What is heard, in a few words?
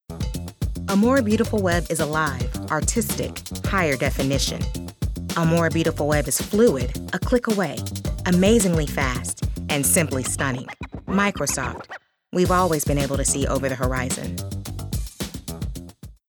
Attitude